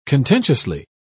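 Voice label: "contentiously", British English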